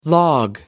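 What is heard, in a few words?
"log off", British English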